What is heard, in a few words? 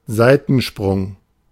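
"Seitensprung", German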